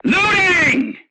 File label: voice message reloading, vietnamese